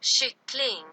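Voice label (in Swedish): "kyckling" Swedish; chicken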